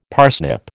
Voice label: "parsnip", American